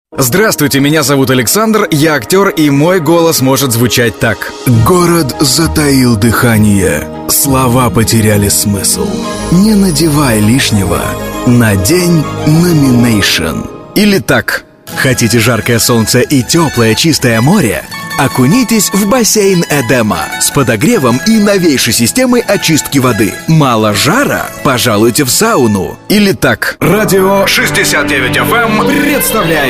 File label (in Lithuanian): Ukrainieciu balsas, vyras